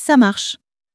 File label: "ca marche", french; flashcards